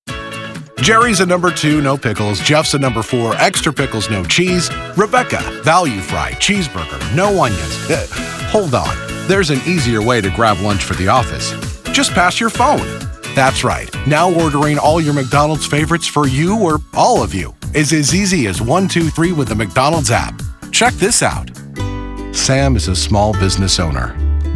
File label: explainer